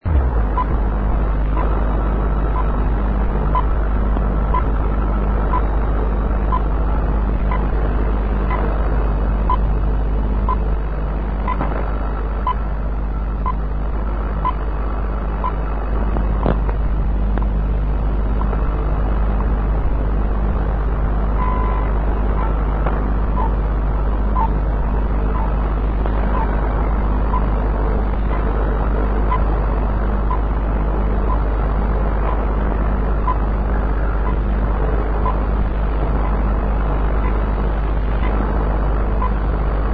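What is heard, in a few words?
upper sideband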